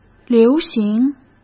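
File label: "流行", Mandarin Chinese; hsk; chinese flashcards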